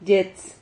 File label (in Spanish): "llets", Silabas